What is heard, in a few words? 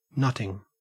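"nutting", Australian English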